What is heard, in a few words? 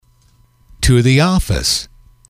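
to the office, English, male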